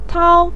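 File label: "tiao" neutral tone, Mandarin Chinese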